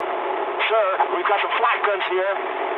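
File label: damaged anti-aircraft mood medium, speech English; War Thunder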